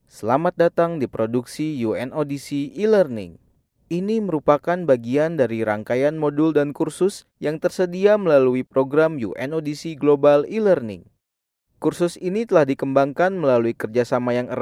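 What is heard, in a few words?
Indonesian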